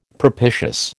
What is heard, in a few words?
"propitious", American